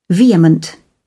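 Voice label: "vehement", British English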